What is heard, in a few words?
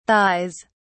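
"thighs", British English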